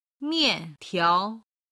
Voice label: "mian4tiao2", chinese

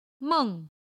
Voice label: "meng", Chinese

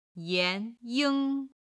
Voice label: "yan2 ying1", Chinese

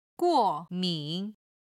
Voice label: "guo4min3", chinese

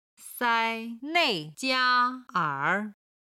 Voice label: "sai1nei4jia1er3", Chinese

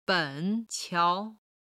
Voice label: "ben3 qiao2", Chinese

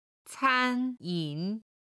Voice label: "can1yin3", chinese

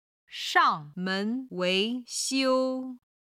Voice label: "shang4men2wei2xiu1", Chinese

